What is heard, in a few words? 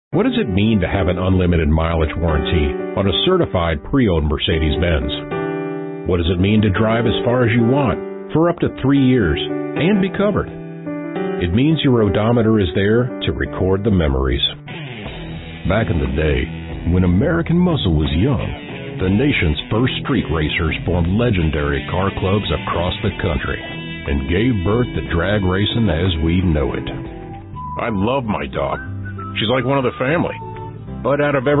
Commercial